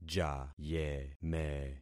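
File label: "jayeme", concatenated syllables; Affixing experiment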